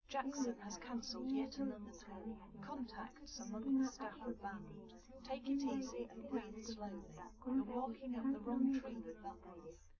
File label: female